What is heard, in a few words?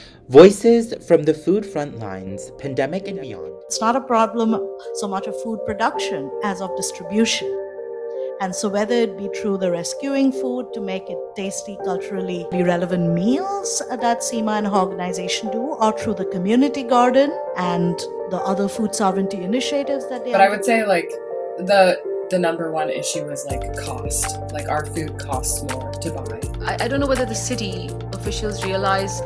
podcast trailer